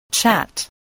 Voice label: "chat", English, voice